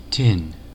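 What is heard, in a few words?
"tin", British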